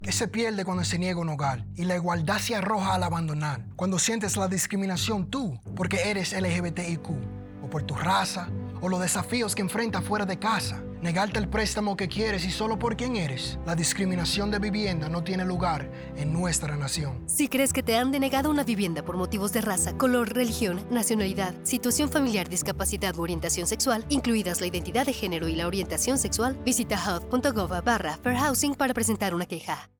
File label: Spoken Word Radio Spanish; NFHA-HUD